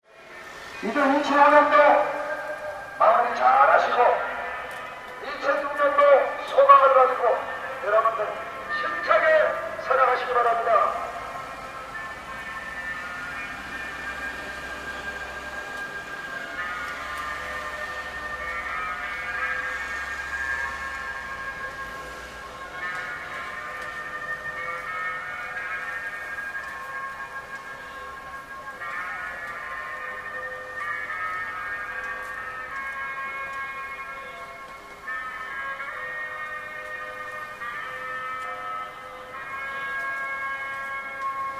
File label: Jeonju preaching